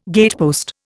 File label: "gatepost", Indian